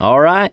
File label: "yes", survivor voice, mechanic